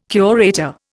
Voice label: "curator", Indian